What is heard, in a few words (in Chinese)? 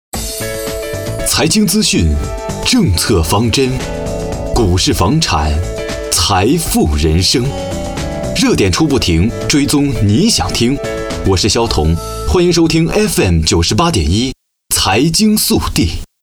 电台包装